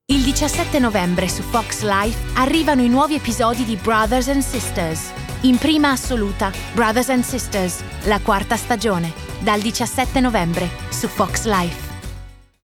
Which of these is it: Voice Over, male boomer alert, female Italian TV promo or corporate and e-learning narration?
female Italian TV promo